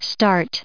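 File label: "starting", US English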